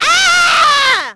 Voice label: fall, negative; Splashdown; XBOX; Umi

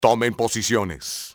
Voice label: take position, spanish soldier; enemy; XBOX

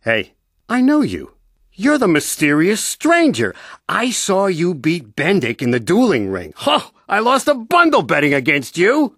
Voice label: male; Star Wars Knights Of The Old Republic I; XBOX

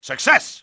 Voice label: "success", enemy, english, male; XBOX

